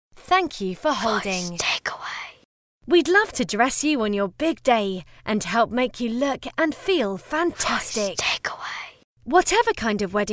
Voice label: Bridalwear Fresh Female